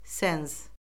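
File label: "senz", Azerbaijani; Orfoqrafiya Lüğəti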